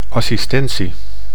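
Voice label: "assistentie", Dutch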